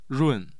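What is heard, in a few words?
"run4", Mandarin Chinese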